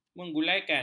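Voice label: "menggulingkan", Malay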